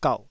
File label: "gauh3", Taiwanese